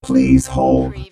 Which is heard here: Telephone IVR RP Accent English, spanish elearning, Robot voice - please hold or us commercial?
Robot voice - please hold